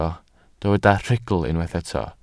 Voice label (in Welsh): "rhugl", Welsh